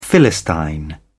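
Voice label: "philistine", British English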